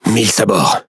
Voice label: Speech Quick Commands Caramba, FR; World Of Warships